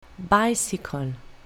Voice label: "bicycle", English; colour english; means of transport